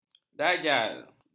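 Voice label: "dajal", Malay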